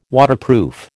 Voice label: "Waterproof", American

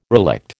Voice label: "relict", American

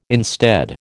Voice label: "instead", American

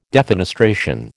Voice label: "defenestration", American

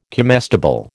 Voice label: "comestible", American

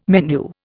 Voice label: "menu", Indian

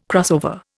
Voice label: "crossover", Indian

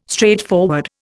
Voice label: "straightforward", Indian

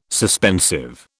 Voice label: "suspensive", British

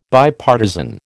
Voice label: "Bipartisan", American

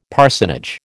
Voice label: "parsonage", American

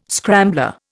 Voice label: "scrambler", Indian

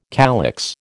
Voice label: "calyx", American

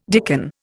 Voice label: "dicken", Indian

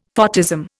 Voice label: "photism", Indian; Dictionary

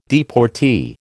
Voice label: "deportee", American

